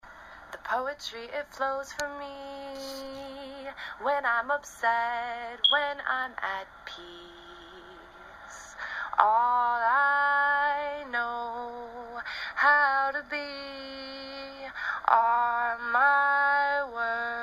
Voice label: Poetry